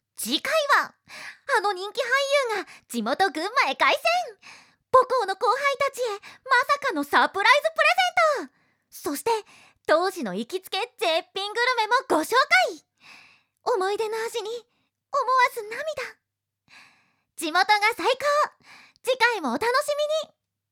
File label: narration